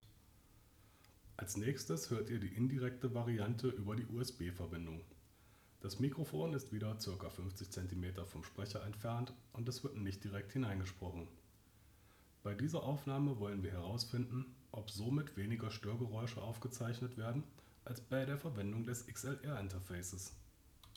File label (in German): Maono PD400X indirekt USB